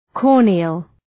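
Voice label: "corneal", English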